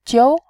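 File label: "jiu", Mandarin, second tone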